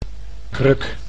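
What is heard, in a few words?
"kruk", Dutch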